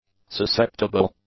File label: "susceptible", English; adjectives